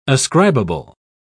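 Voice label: "ascribable", British English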